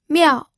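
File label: "miao", fourth tone, pinyin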